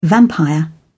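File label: "vampire", British English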